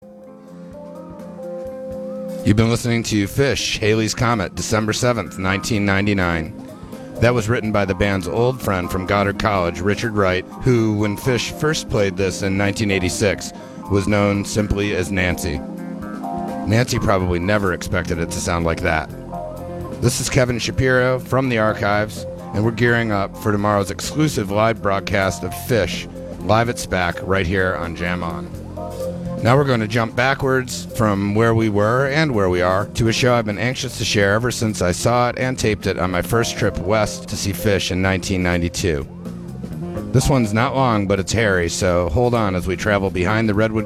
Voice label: radio banter; SPAC